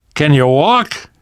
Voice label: revive friend, namvet, survivor voice; Left 4 Dead 2; left4dead2 dlc3